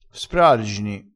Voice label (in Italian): "sprargini", vocabolario dialettale crespinese